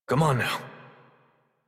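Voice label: horse start adult, English; Warframe; Duviri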